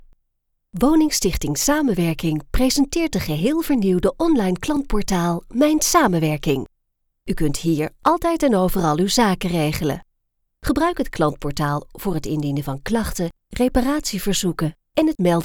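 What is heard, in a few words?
Dutch F Corporate, female; voice over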